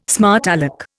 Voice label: "smart alec", Indian